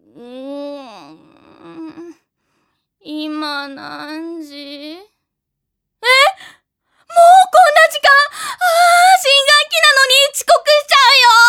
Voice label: female voice

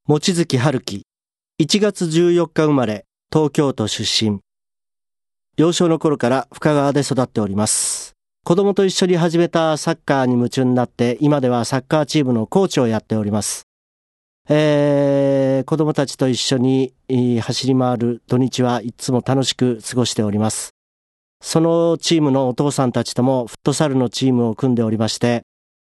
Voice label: freetalk, male voice

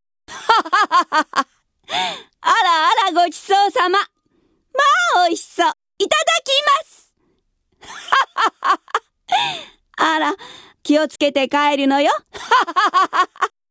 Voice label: female voice

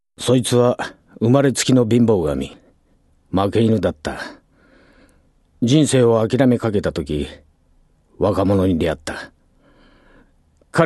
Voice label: male voice

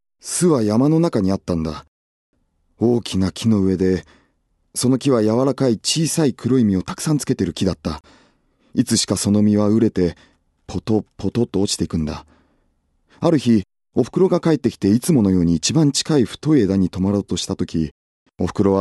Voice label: male voice

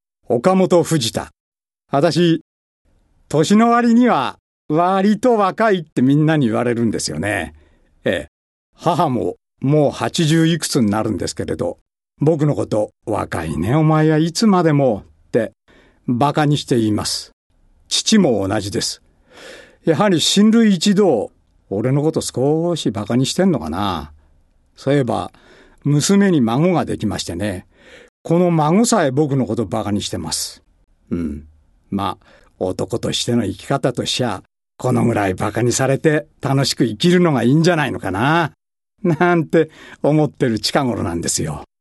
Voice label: freetalk, male voice

